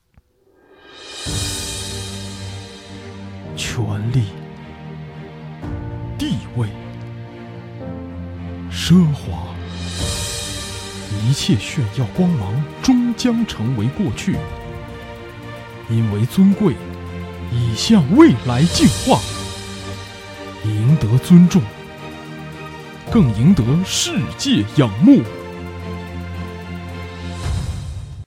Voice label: 广告, 男生，浑厚大气，年轻活力，普通话; 奥迪

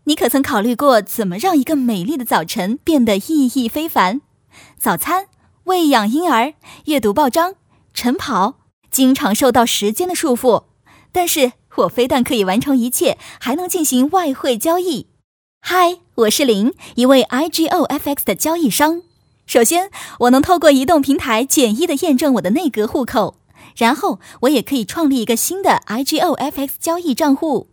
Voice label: APP视频广告

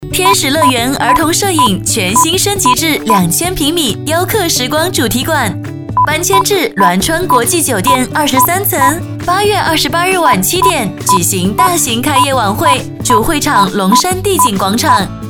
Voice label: 广告开业活力轻松时尚

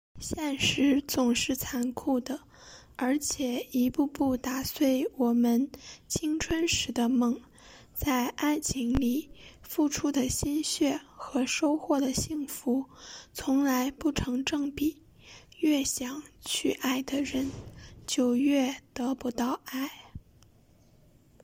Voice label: 甜美配音

